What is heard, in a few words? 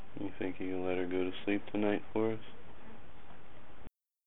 Female voice "YES"; jersey city